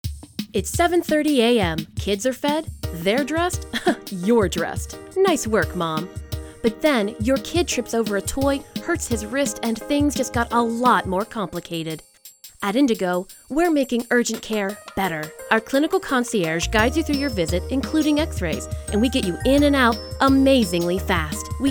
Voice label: Radio Female